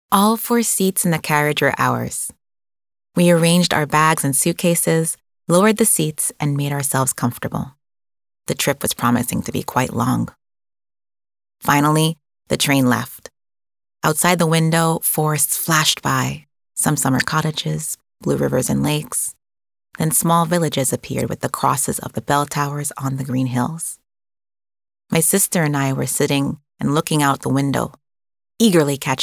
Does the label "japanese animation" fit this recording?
No